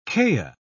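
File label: "kea", British English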